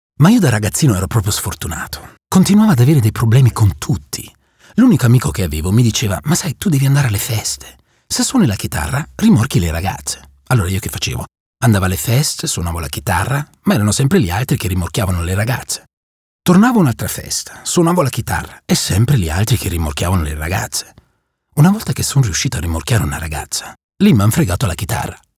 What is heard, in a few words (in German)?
Prosa italienisch